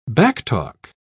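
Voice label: "backtalk", British English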